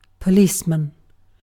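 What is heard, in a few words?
"policeman", British English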